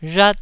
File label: "rhat1", taiwanese